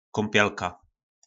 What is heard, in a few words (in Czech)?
"Kómpělka", nářečí Po našimu; Koupelna